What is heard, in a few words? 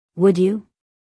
"Would you" us female; everyone-can-use-english